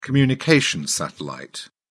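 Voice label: "communications satellite", British English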